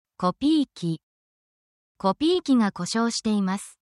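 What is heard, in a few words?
Japanese word, essential Japanese phrases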